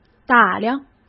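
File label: "打量", Mandarin Chinese; hsk; chinese-flashcards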